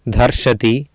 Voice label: "dharSati", Sanskrit